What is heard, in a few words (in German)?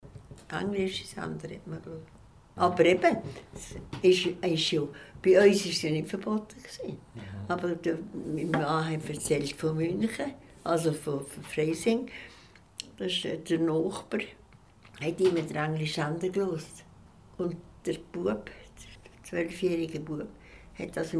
Radio; oral historie